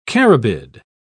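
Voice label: "carabid", English, British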